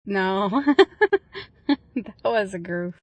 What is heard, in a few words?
Female voice recording session outtake; Talking